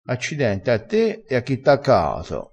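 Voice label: "accidenti caato", Italian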